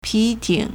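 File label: "pi2ting3", Mandarin Chinese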